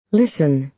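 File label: "listen", American English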